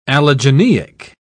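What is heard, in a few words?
"allogeneic", British English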